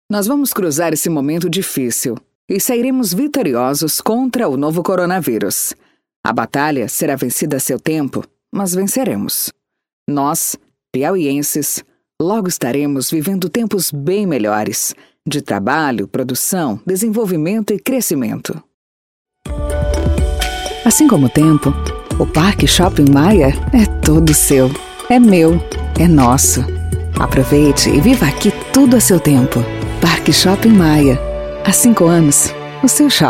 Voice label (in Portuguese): locutora